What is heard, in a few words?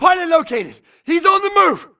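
grunt target Player Moving Mid; imc; Titanfall 2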